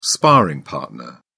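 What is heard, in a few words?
"sparring partner", British English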